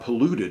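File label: "polluted", US English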